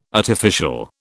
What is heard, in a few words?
"artificial", British